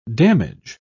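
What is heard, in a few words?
"damage", English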